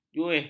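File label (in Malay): "juih", Malay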